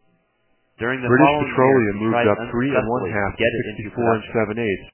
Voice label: male male mixture; deep clustering